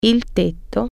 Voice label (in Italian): "roof", Italian